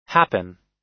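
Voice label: "happen", US English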